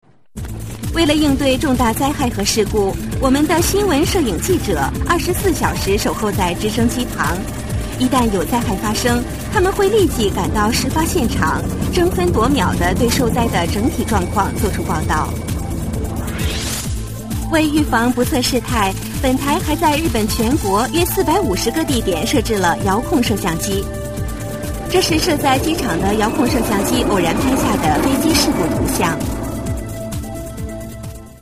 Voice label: chinese